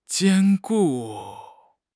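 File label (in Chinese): "坚固", Chinese; HSK6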